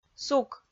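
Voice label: "сук", Komi-Zyrian, female; audio dict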